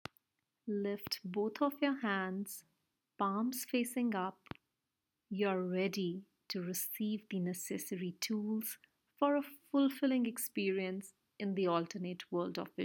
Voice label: Lift Hands, Narrations; DET24 SoulSphere